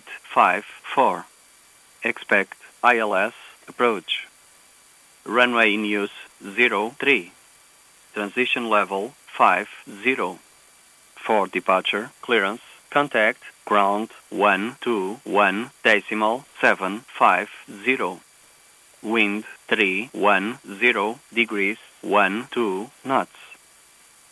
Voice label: ATIS Lisboa